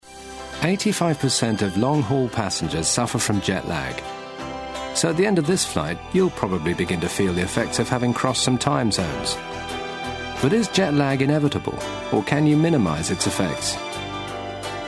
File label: corporate, voice